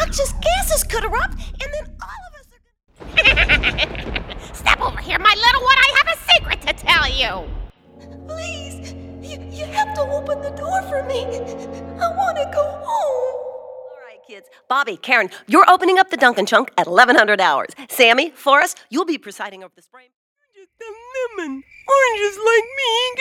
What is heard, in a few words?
ANIMATION VOICE